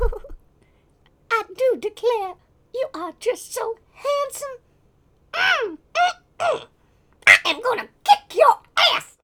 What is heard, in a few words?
Voices Female Beggings